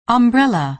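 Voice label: "umbrella", American English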